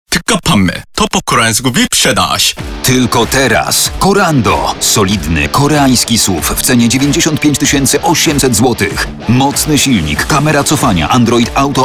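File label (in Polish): reklama wyprzedaz, advert; ssangyong; Wyprzedaż Korando z rocznika 2025. Kredyt 50/50 0%. Opcja LPG (Reklama); Nowe SsangYongi by KGM z silnikiem benzynowym to modele Korando, Tivoli, Torres i Actyon.; Wyprzedaż Rocznika 2025. Oprócz upustu wyprzedażowego, cena obejmuje zniżkę za skorzystanie z finansowania KGM Finance.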